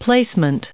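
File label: "placement", us English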